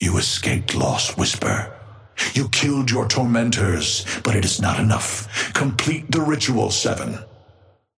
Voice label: male ally gigawatt start; Patron